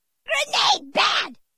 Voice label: grenade bad, grunts

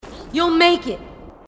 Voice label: marine tough

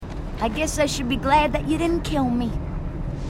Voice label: female marine

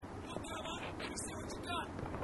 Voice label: marine, aussie; h2dialogue

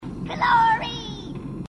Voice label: glory, grunts

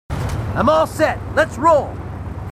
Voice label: marines, marine timid; h2dialogue

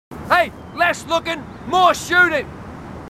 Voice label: marines, aussie; h2dialogue

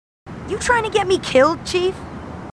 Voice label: marines female, marine tough

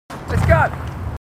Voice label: marines, aussie; h2dialogue

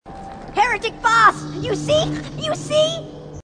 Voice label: grunt; h2dialogue; grunt crazy

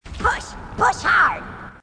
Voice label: grunt; h2dialogue; grunt crazy

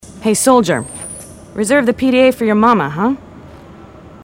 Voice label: female marines

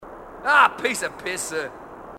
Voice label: marine aussie; h2dialogue

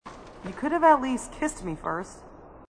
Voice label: female marine, sassy

